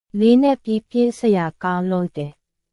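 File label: Myanmar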